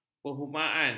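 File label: "perhumaan", Malay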